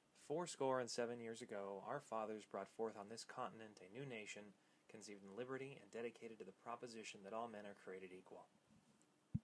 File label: iRig Mic Cast Low Setting